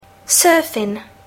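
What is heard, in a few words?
"surfing", English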